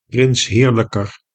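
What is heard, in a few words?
"prinsheerlijker", Dutch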